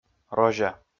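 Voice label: "розя", Komi, male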